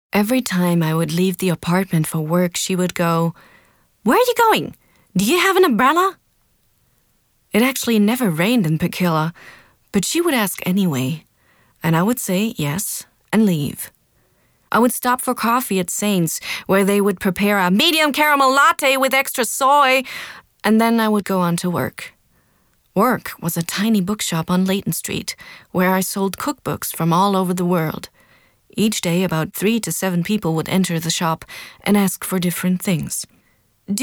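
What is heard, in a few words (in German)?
US English, Schauspiel Freundlich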